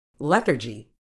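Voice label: "lethargy", British English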